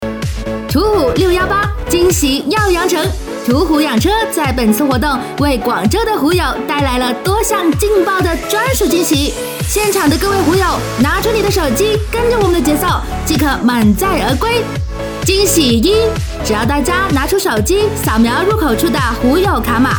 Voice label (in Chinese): 普語; 途虎